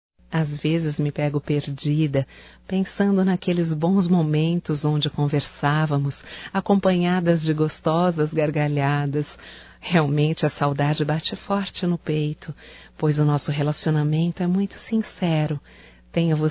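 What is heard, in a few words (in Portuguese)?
SAUDADES AMIGA DISTANTE feminino, Telemensagens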